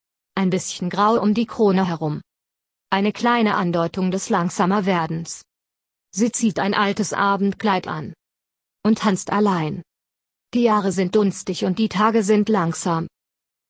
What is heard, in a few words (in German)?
Kuehler Wind vorgelesen